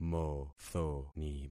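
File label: "mothoni", concatenated syllables; Affixing experiment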